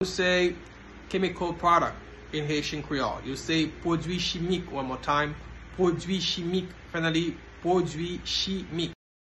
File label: Pwodwi chimik pronunciation by a Haitian teacher, Haitian Creole